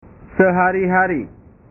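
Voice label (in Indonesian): "sehari hari", Indonesian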